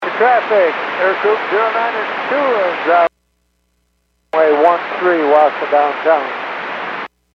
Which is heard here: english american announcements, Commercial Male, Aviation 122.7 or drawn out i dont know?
Aviation 122.7